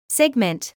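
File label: "segment", British English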